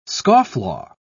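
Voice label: "scofflaw", British English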